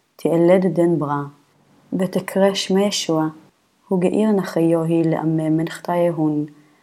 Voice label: Matthew Reading Practice, Aramaic